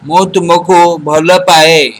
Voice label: i love you, odia